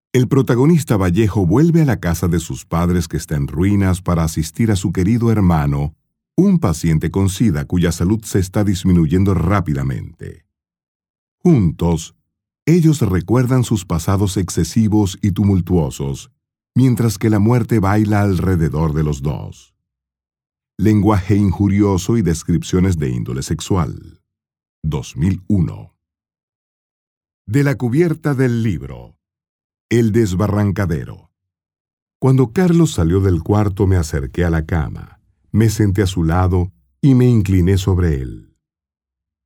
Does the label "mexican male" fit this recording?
Yes